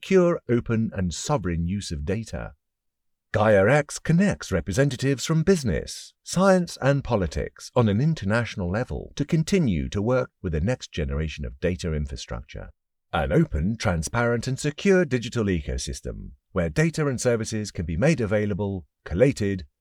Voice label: English Event Speaker

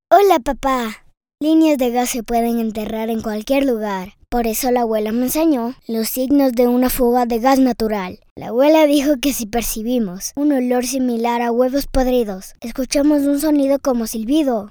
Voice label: Radio TV Imaging

